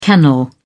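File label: "kennel", British English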